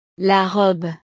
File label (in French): "la robe", frances; ropa